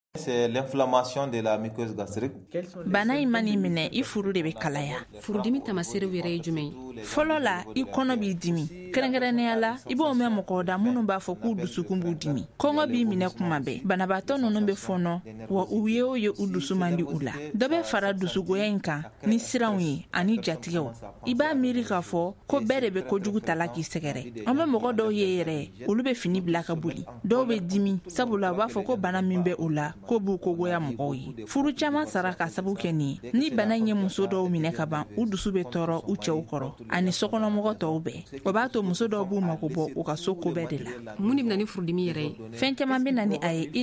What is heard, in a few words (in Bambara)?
magazine bamanankan